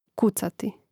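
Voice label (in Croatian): "kucati", Croatian; Mrežnik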